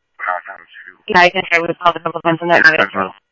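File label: Sheriff Lake Patrol, scanner audio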